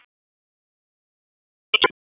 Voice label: Police North District Tac 1, p25; Raleigh; wake